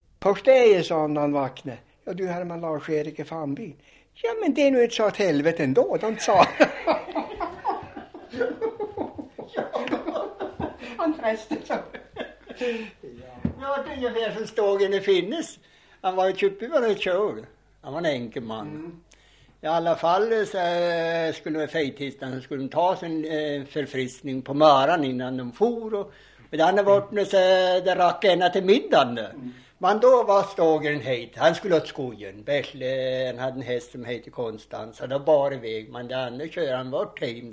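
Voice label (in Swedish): Sundsjö Bodsjö Jämtland, Svenska sagor, dialekter; Folkminnen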